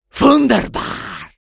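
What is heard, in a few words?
positive vocalization, French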